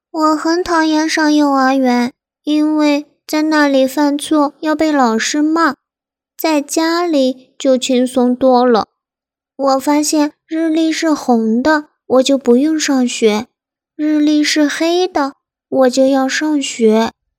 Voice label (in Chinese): 萝莉音